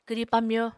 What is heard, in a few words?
"kwri pamyo", Apatani